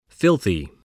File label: "filthy", spelling